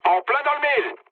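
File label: bomb success, mood low, French; War Thunder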